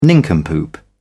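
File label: "nincompoop", British English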